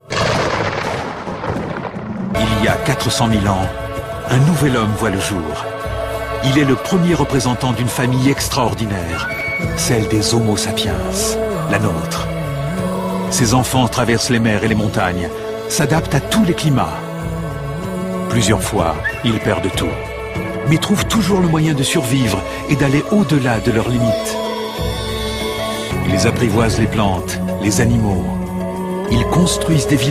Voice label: Docu; HOMO SAPIENS

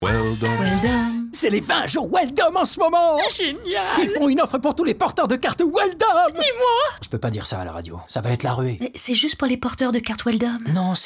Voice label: Publicité; Weldom; Offre Carte

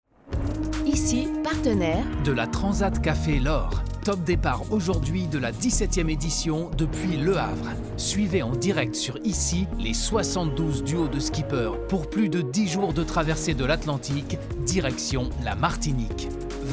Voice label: Bande annonce; Transat Cafe L Or

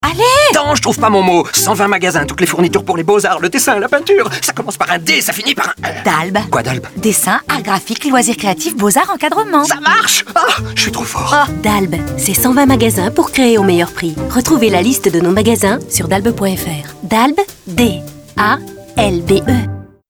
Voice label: comédies Dialogues

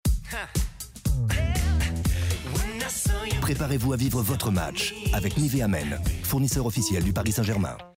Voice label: billboard; nivea Men

